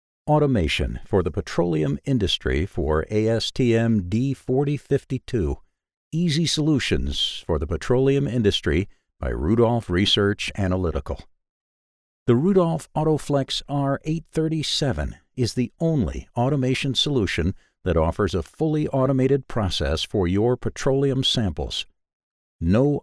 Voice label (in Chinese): 外籍英男 干音